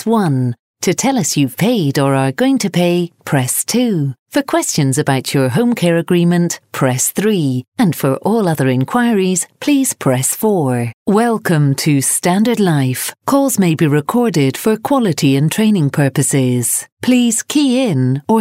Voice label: Scottish Female; regional voiceovers